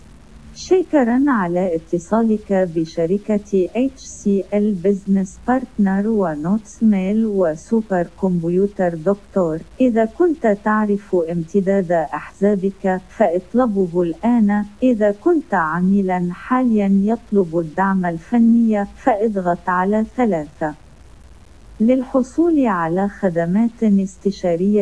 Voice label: Main Menu Arabic; NotesMail